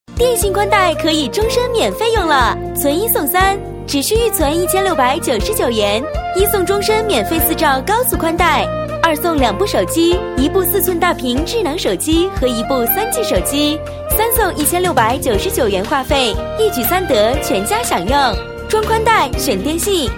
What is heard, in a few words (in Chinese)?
女 广告, 国语女声配音; 中国电信宽带